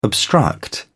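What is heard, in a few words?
"obstruct", British English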